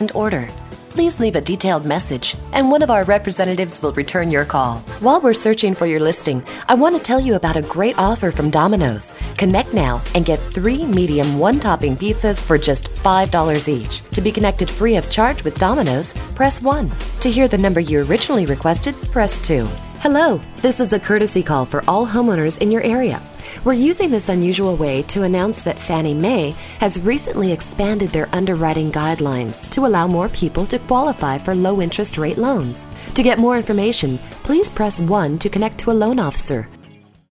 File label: On Hold